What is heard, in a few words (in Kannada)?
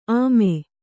"ಅಮೆ", Kannada